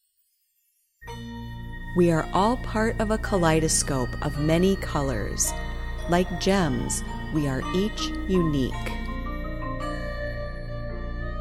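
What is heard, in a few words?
with voiceover; stained glass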